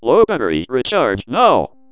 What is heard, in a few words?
DECtalk Windows Battery Critical; Aksel Christoffersen; DECtalk Sounds For Windows